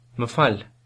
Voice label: excuse me, albanian